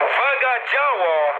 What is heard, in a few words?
damaged aa mood high, speech pol; War Thunder